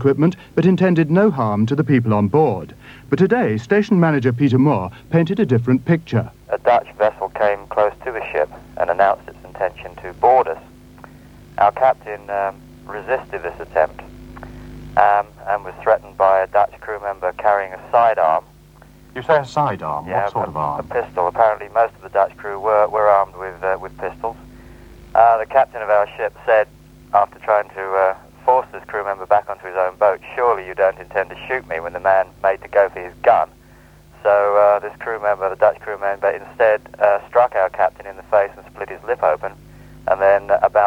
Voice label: lunchtime news tv audio; anglia